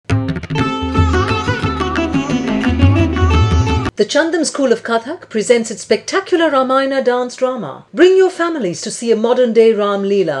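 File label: Ad With Music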